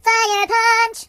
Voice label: Firepunch, voices Singer; hedgewars

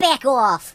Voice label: Cut it out, voices Mobster; hedgewars

